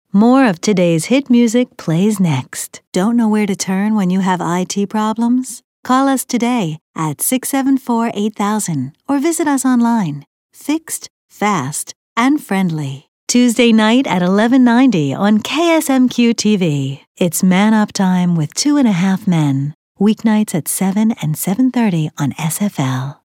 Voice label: Radio